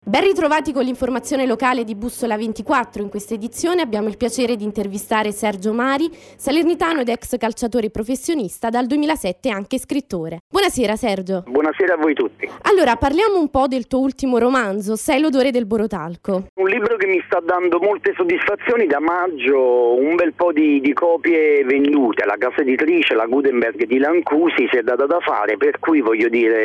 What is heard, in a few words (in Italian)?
news locali